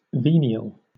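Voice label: "venial", British English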